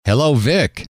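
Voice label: hello, male; trainer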